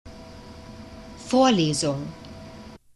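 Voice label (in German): "vorlesung", German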